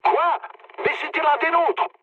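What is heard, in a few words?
player killed ally, mood low, French; War Thunder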